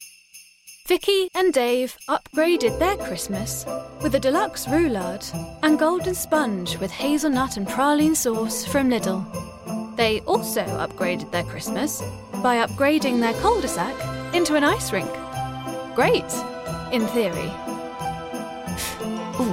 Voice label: VO Reel; Lidl